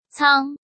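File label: "Cāng", Chinese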